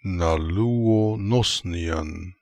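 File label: "Naloo onosnian", pronunciations; Fourth Way; Beelzebub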